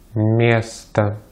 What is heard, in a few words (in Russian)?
"место", Russian